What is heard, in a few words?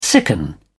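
"sicken", British English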